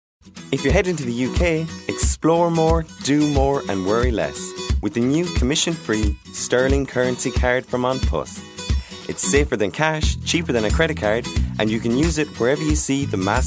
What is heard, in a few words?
voiceover